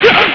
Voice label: x hurt; MMX4SFX